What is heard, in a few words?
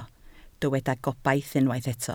"gobaith", Welsh